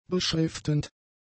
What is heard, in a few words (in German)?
"beschriftend", German; adjectives